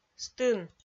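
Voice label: "стын", Komi-Zyrian, female; audio dict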